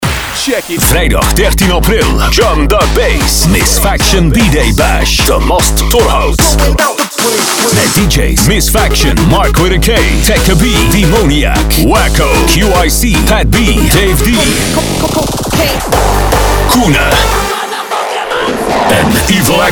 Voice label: party commercial; jump the bass